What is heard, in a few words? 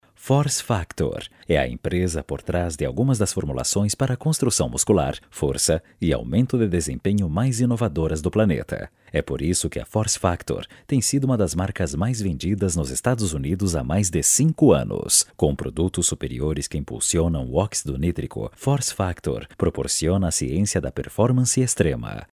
locutor brasil brazilian voiceover, locutor brasileño